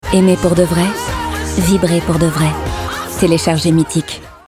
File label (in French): pub FR; Meetic